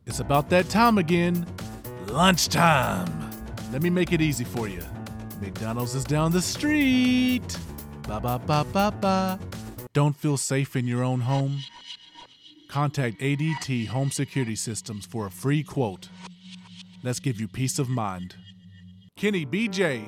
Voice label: Commercial

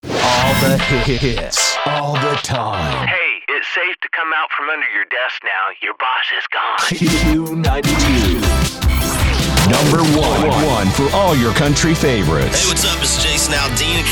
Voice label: Imaging Demo, male

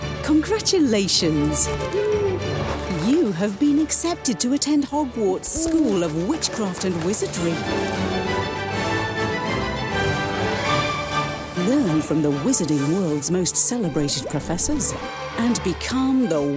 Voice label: Commercial; Harry Potter Hogwarts Mystery

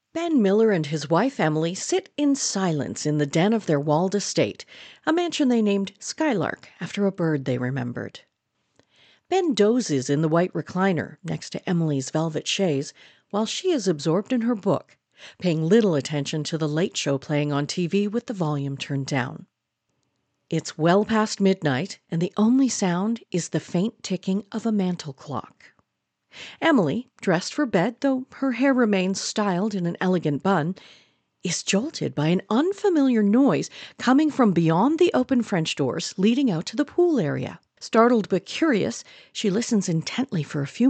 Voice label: Narrator; A Stunning Betrayal

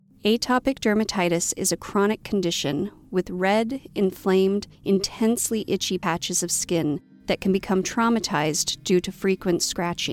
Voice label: Medical Patient Education Dermatitis

